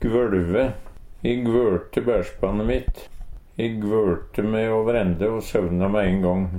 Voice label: "gvøLve", Numedalsmål; E gvørte bærspanne mitt!

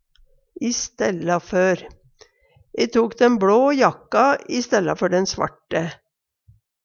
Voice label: i stella for, Numedalsmål; i staden for; E tok den bLå jakka i stella før den svarte.

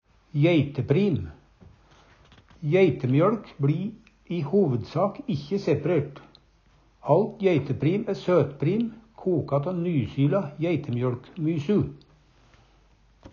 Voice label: "jeiteprim", Numedalsmål

